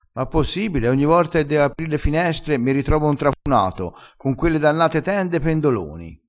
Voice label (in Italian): "pendolone", crespinese